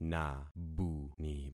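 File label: "nabuni", concatenated syllables; Affixing experiment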